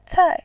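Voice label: "cai", Mandarin Chinese, tone 4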